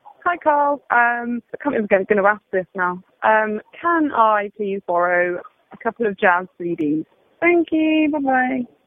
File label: jingle can i borrow some jazz cds